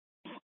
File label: Fire Departments Mesa Simulcast; C3 Mesa; TOPAZ